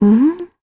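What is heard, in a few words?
Deny, Korean; voices; heroes; Talisha; kings raid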